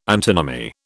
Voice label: "antinomy", British